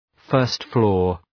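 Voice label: "first floor", English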